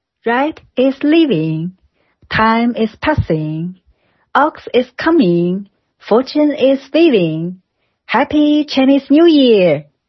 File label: English